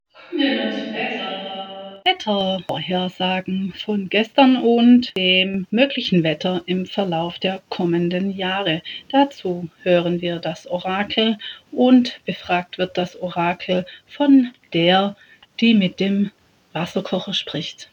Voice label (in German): Jingel Wettervorhersagen